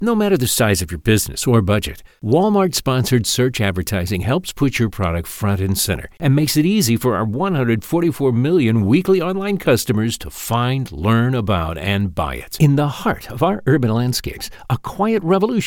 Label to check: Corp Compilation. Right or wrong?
Right